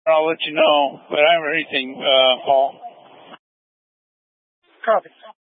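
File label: Incident Tactical, scanner audio recording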